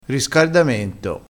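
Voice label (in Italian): "riscardamento", dialettale, crespinese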